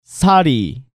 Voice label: "Sari", Korean

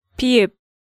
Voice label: "Bieub", Korean

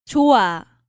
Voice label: "Joa", Korean

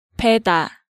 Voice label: "Beda", Korean

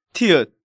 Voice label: "Tieut", Korean; Hangeul sounds

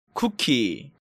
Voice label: "Cookie", Korean

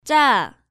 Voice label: "Jja", Korean

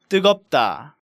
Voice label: "Tteugeopda", Korean

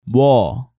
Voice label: "Mwo", Korean

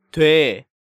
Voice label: "Doe", Korean

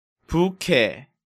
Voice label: "buque", Korean

